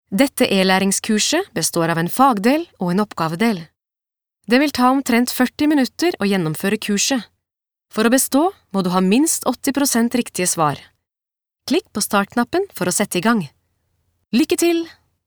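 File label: no elearning, Norwegian; kvinne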